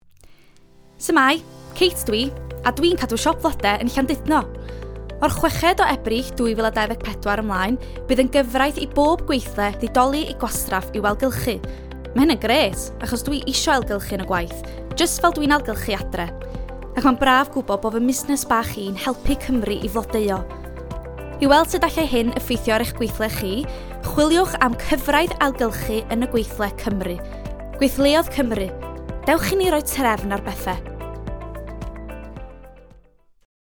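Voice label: Welsh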